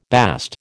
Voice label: "bast", American